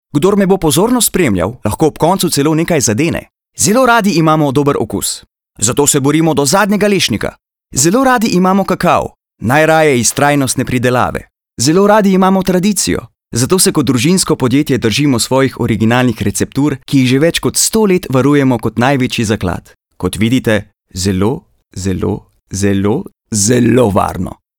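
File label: slovenian male commercial; voice demos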